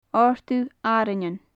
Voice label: "ordugh arainnean", gaelic; Faclan Nàdair